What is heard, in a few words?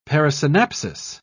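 "parasynapsis", British English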